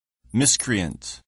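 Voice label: "miscreant", English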